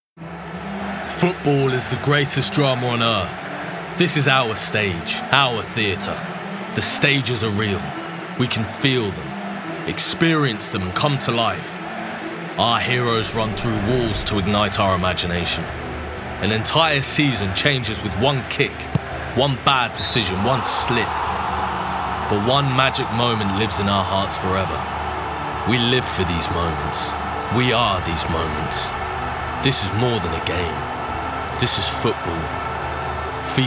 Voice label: Commercial Reel; FIFA